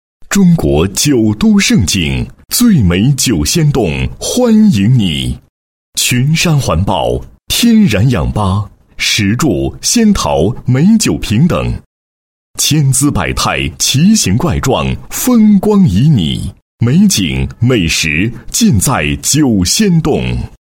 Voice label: 男, 宣传广告; 中国酒都胜景宣传

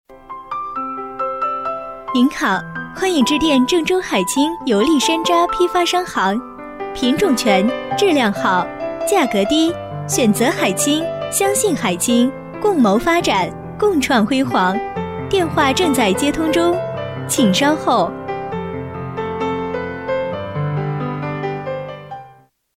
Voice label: 女 油栗批发, 国语女声配音 彩铃广告; 海清油栗批发

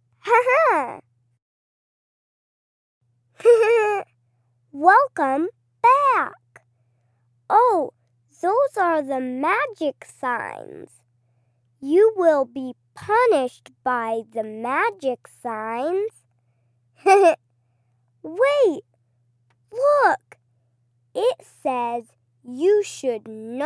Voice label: 外籍女童声, 日常交流

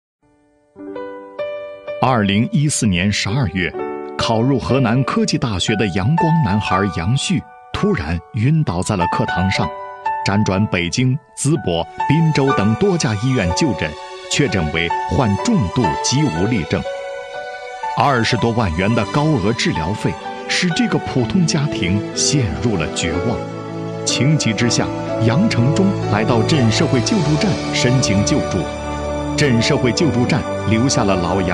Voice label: 男 解说专题 社会救助中心宣传片解说词

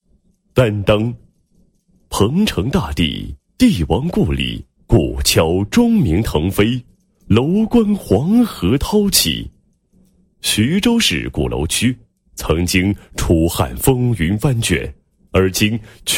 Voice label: 男 政府廉政建设 响应国家反腐倡廉; 鼓楼区

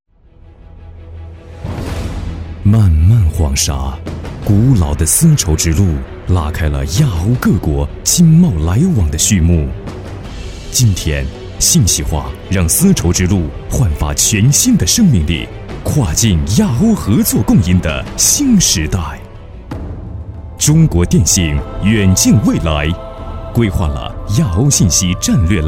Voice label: 男 (大气 雄浑); 大气沉稳; 中国电信

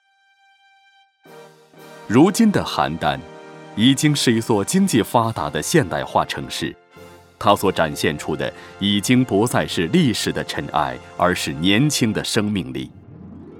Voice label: 男 企业专题 制药; 邯郸制药样

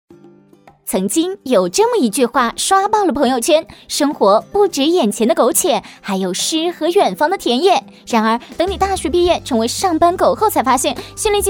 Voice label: 女; 飞碟说

